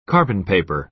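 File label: "carbon paper", American English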